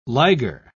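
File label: "liger", English, British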